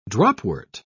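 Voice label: "dropwort", British English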